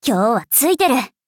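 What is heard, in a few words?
levelup, Japanese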